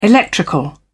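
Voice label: "electrical", British English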